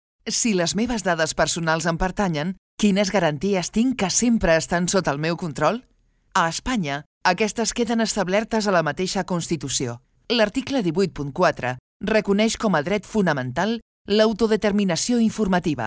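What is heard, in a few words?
Spanish, female, corporate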